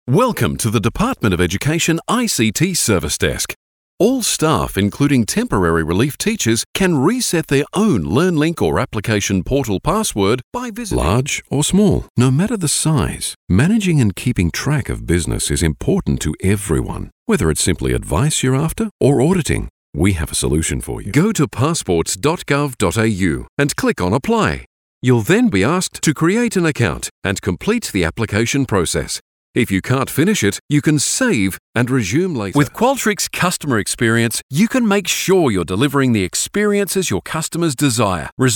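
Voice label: Well Spoken, Bright, Friendly, Australian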